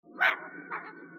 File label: grumble, grunt crazy; h2dialogue